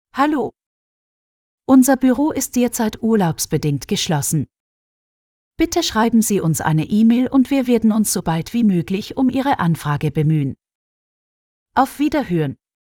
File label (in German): Urlaub generisch Hang Up FEMALE